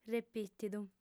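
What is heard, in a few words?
"repìtidu", female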